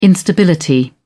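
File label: "instability", British English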